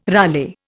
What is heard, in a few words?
"rale", Indian